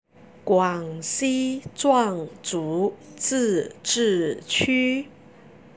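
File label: "guangxi", Chinese; 广西壮族自治区; Guǎng xī Zhuàng zú zì zhì qū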